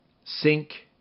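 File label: "sink", English; RhymeTest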